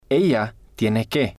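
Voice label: "ella Tiene Que", Spanish, male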